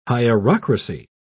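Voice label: "hierocracy", English, British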